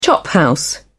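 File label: "chophouse", British English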